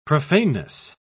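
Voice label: "profaneness", English, British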